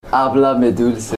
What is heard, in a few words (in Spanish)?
hablame dulce